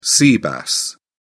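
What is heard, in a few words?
"sea bass", English, British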